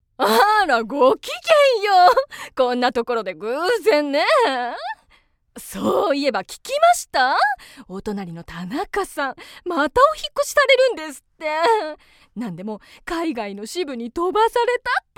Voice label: 噂話をする奥様(大人 中高年)

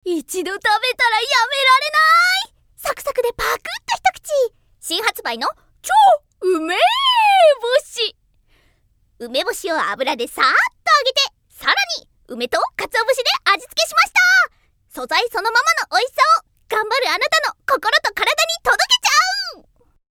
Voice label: 商品CM

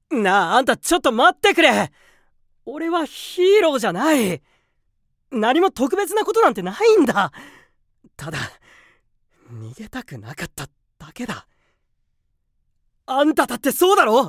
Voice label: 外画青年

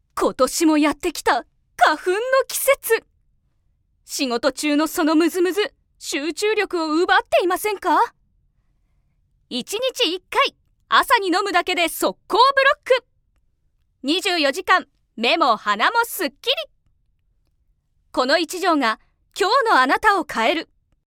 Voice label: CMナレーション; CMナレーション２

